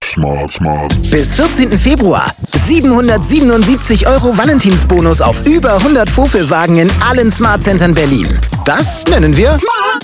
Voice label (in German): Funkspot; Smart